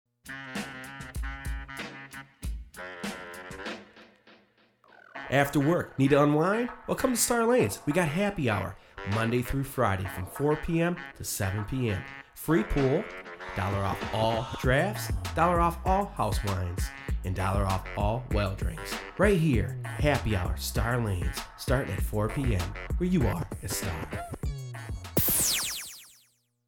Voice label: Happy Hour Commercial